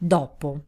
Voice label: Italian, female